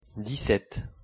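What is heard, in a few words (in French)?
"dix-sept" French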